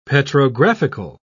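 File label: "petrographical", English, British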